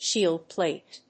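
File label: "shield plate", English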